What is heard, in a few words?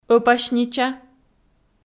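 "ӧпаснича", Komi, female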